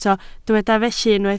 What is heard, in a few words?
"felly", Welsh